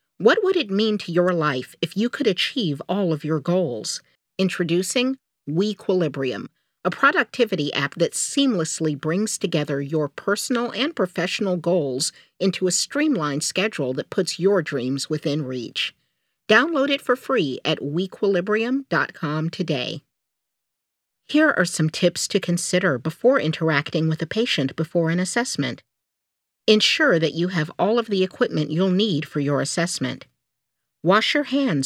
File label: commercial audio